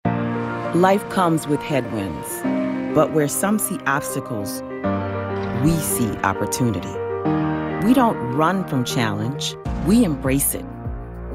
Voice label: National TV commercial; kilroy

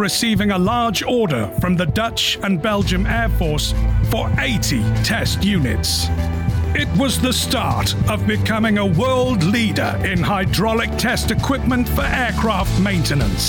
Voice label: Video Narration Voice

